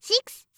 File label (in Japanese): "シックス", カウント